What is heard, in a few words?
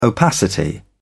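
"opacity", British English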